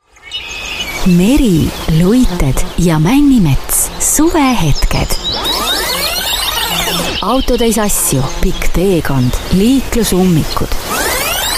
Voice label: (MOT) Estų balsas, moteris

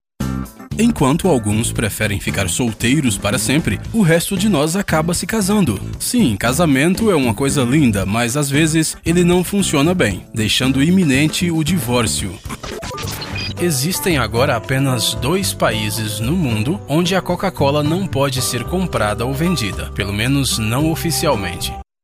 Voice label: VYR Portugalu balsas, vyras

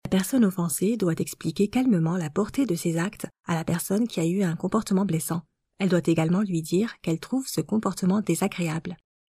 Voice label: (MOT) Prancuzu balsas; moteris

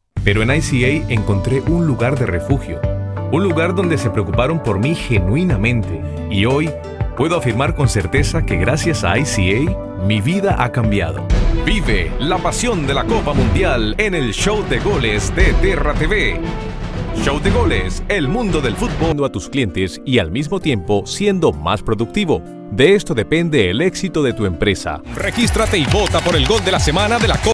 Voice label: Ispanu balsas, vyras

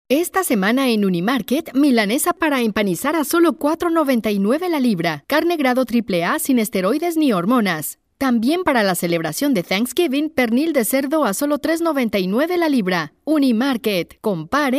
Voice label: (MOT) Ispanu balsas

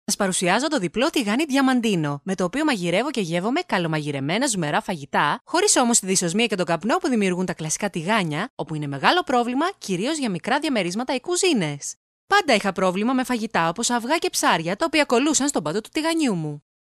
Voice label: MOT Graiku balsas, moteris

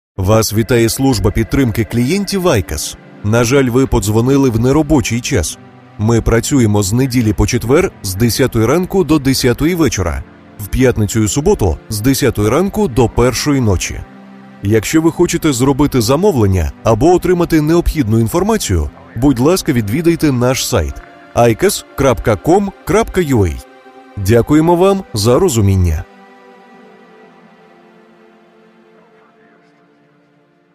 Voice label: Ukrainieciu balsas, vyras

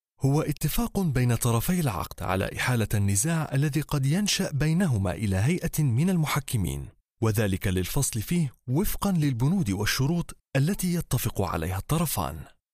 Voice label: (VYR) Arabų balsas; vyras

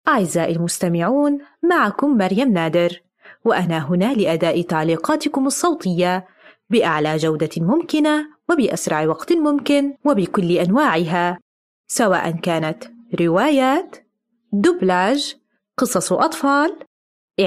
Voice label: (MOT) Arabų balsas

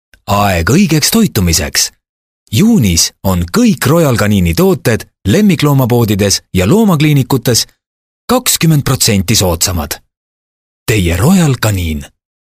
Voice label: (VYR) Estų balsas

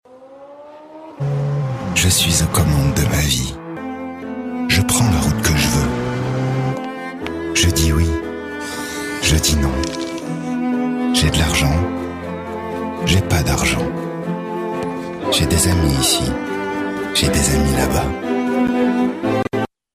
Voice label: VYR Prancuzu balsas, vyras

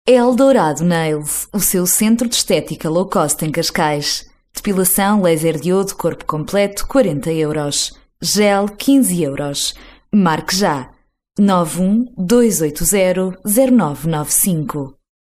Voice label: MOT Portugalu balsas, moteris

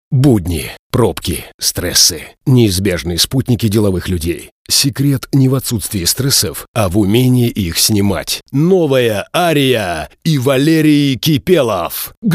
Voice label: Rusiškas balsas, vyras

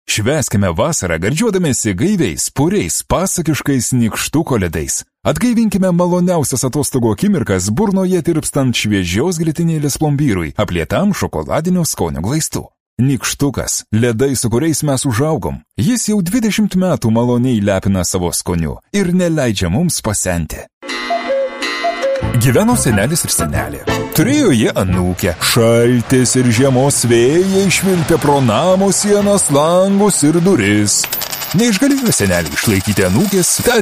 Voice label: lietuviu balsai, vyras

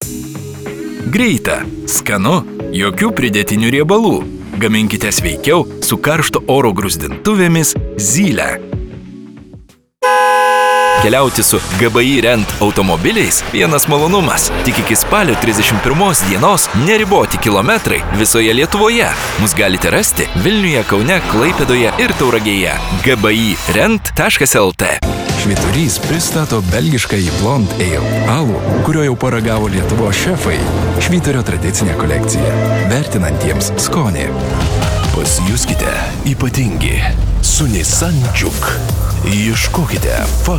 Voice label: lietuviu, vyras

